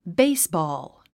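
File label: "baseball", English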